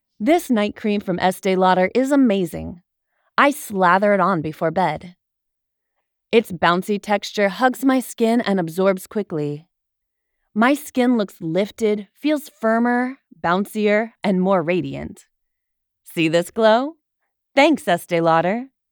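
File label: Estee Lauder UGC, american female voice over art, conversational female adult vo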